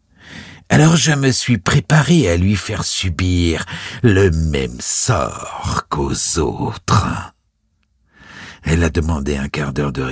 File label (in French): Livre audio MONOLOGUE; hoerbuch; BARBE BLEUE